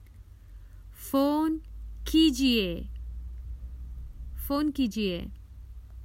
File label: Call, Common Hindi Phrases for travel